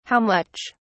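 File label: "how much", British English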